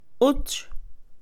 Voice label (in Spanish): hermana menor, Mixe Mujer; Parentesco; INALI